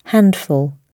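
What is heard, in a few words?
"handful", British English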